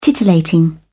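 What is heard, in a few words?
"titillating", British English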